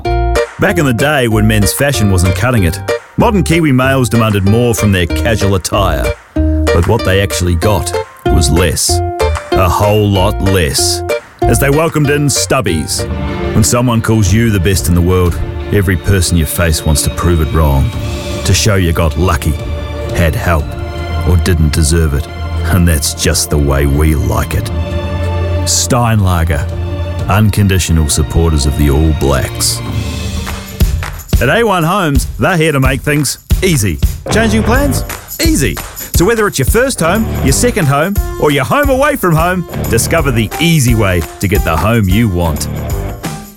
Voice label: Voice Actor; BigMouthVoices